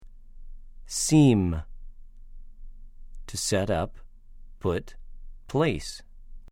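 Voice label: "שִׂים", Hebrew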